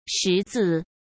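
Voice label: "识字", Mandarin Chinese; hsk; chinese-flashcards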